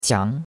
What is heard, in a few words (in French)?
"qiang2", chinois; Cours-de-Chinois